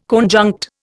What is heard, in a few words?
"conjunct", Indian